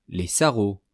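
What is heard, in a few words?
"les sarraus", French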